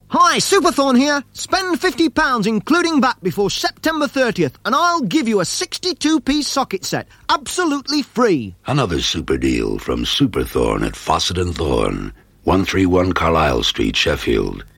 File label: Radio Advert; Radio Hallam; Fosset And Thorn; Supethorn